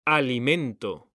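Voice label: "alimento", Spanish, male; mekanta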